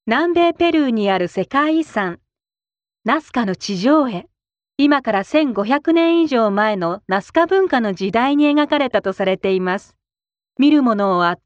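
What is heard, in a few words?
Japanese, female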